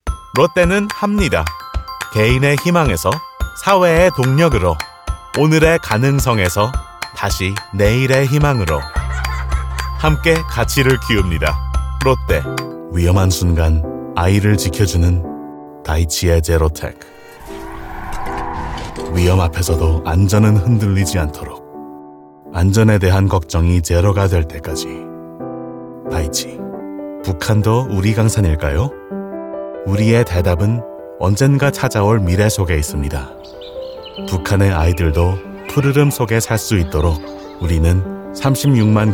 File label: Korean